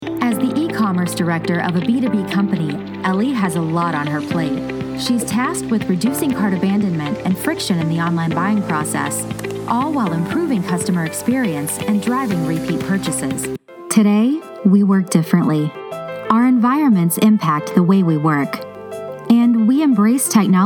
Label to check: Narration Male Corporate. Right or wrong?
Wrong